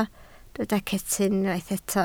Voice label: "cetyn", Welsh

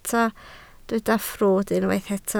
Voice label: "ffrwd", Welsh

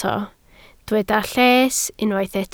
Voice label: "lles", Welsh

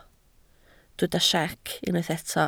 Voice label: "siec", Welsh

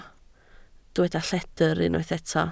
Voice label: "lledr", Welsh

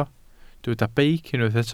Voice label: "beic", Welsh

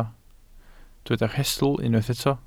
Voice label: "rhestl", Welsh

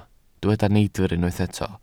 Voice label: "neidr", Welsh

